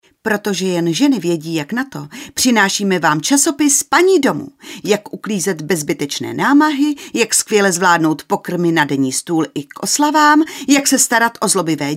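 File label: female Czech commercial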